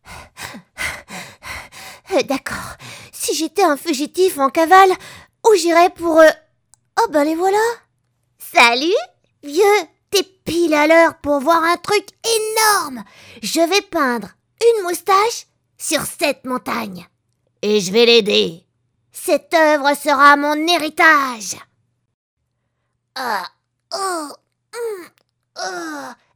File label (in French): Voix Enfants Dessin Anim, comedienne